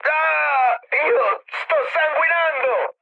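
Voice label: Italian damaged pilot mood med, speech; War Thunder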